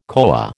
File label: "koa", American